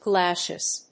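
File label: "glasshouse", English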